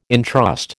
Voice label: "intrust", American

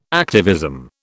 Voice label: "activism", British

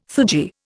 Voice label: "theurgy", Indian

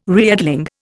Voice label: "reedling", Indian

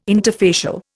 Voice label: "interfacial", Indian

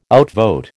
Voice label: "outvote", American

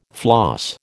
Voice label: "floss", American

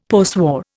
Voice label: "postwar", Indian; Dictionary

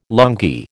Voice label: "lungi", American

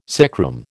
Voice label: "sickroom", American

